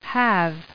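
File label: "have", English; UHOG2000; readfish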